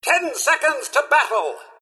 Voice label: announcer countdown seconds 10; Dota 2; fallout4 announcer; misterhandy

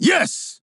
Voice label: Emote Yes; Buck; Paladins

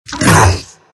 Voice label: morph pain; terrorblade

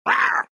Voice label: anger; Tinker

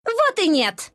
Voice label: deny, ru; dota2

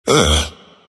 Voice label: anger; ember spirit

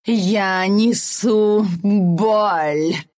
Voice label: pain respawn; dota2

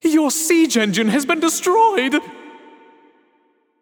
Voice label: Match Siege Destroyed, Town Crier

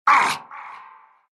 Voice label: pain, ru; Dota 2

